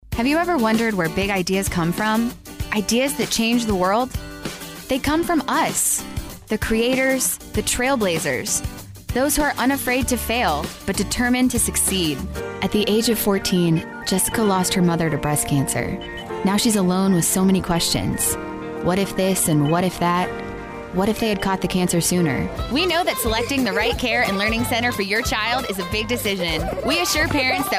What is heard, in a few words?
Commercial